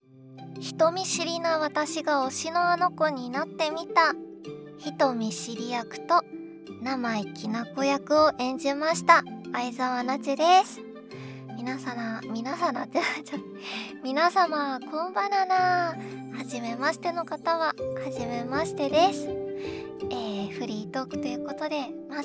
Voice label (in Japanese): フリートーク; 人見知りな私が推しのあの子になってみた